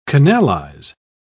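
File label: "canalise", British English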